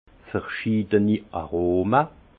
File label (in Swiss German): "aroma", alsace; vin; aromes variés